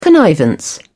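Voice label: "connivance", British English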